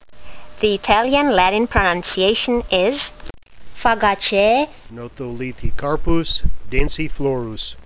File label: latin; Notholithocarpus densiflorus